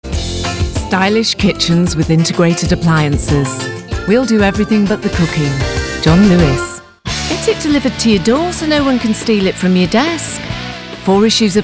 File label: Commercials